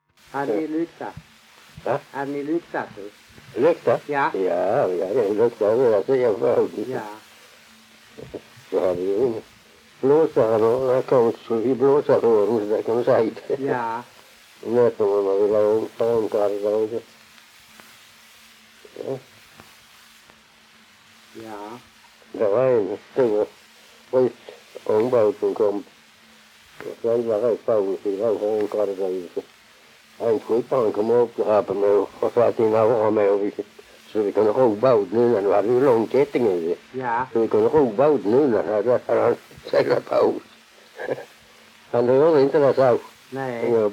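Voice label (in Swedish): Allerum Skåne, dialekter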